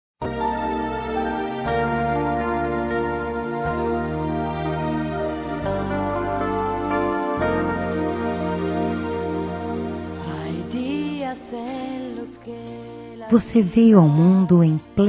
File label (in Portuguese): AMOR NÃO CORRESPONDIDO, Telemensagens, Fem